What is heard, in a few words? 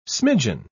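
"smidgin", British English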